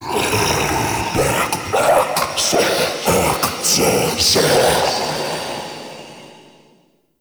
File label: Mockery Male Vocal Enemy Spotted Phrase; Characters; Vocals; Mockeries